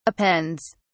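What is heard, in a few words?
"appends", British English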